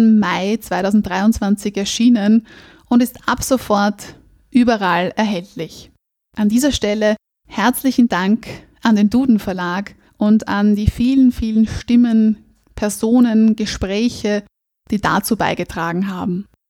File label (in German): mundart wienerisch